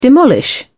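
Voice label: "demolish", British English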